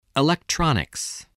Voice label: "electronics", spelling

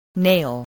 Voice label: "nail", voice

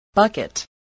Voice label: "bucket", spelling

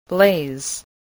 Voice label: "blaze", spelling

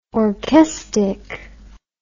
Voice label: "orchestic", English word

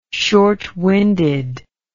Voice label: "shortwinded", English

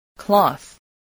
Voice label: "cloth", spelling

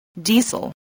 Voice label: "diesel", English

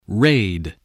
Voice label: "raid", english

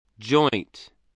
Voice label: "joint", English

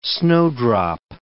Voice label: "snowdrop", english

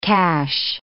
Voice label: "cash", English

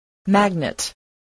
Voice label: "magnet", spelling voice

